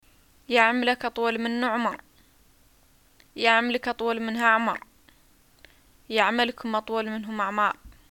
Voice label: greeting death, arabic